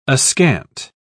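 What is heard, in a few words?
"askant", English, British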